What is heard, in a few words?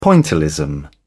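"pointillism", British English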